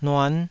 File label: "nuan5", Taiwanese